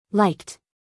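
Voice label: "liked", American English, female; everyone-can-use-english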